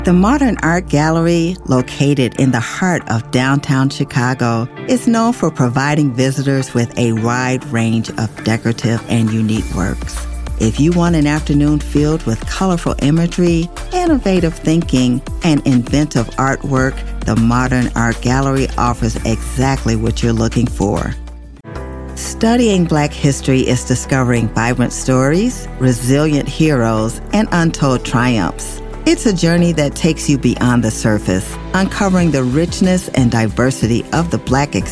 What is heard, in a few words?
Narration